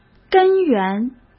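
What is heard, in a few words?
"根源", Mandarin Chinese; chinese flashcards; hsk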